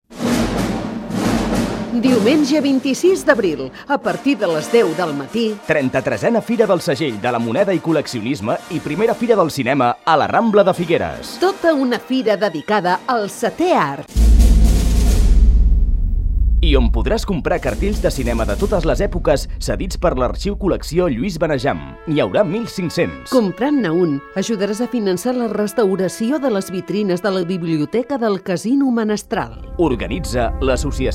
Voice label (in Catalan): spot radio; fira 2009